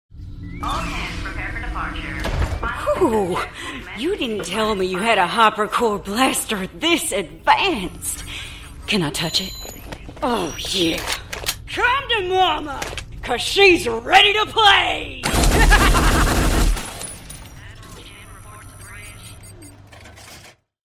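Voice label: videogame sci-fi female US space marine ai announcement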